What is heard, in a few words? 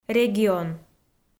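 "регион", Komi, female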